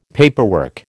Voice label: "paperwork", American